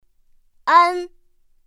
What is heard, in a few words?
"ān", pinyin; pinyin2; pinyinji